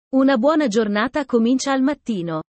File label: italian sayings about life